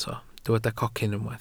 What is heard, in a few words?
"cocyn", welsh